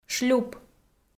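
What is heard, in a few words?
"шлюп", Komi, female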